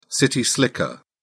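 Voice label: "city slicker", British English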